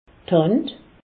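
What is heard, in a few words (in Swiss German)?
"hand", Haut Rhin; medecine; main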